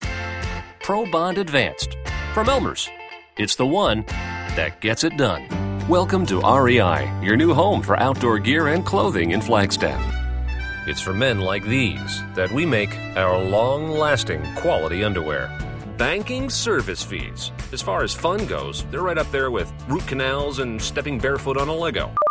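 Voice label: commercial voice over mellow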